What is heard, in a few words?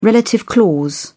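"relative clause", British English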